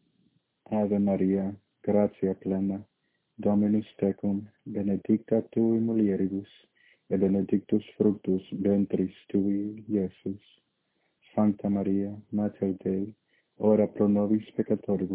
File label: Latin Hail Mary